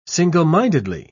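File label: "single-mindedly", British English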